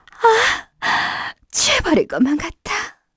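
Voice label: Vox Skill, Korean; Erze; kingsraid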